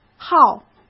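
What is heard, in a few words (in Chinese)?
"号", Mandarin Chinese; hsk; chinese flashcards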